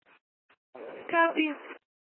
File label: Court Security Dispatch, scanner